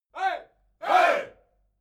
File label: military shout "hey"